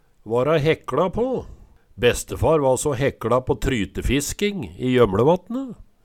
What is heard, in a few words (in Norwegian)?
vara hekLa på, Numedalsmål